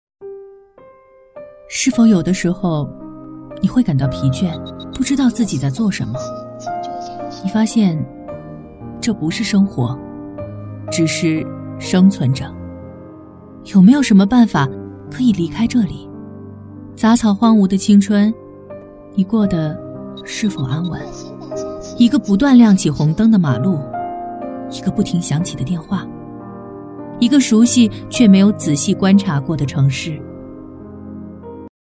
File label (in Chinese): 旁白生活